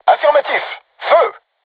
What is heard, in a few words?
voice message art shoot, crew tanks french